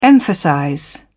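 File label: "emphasize", British English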